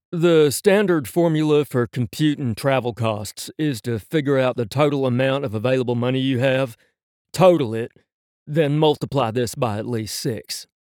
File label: texas, accents; Texan